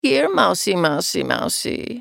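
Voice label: taunt, English; Nidalee; Character Voices - English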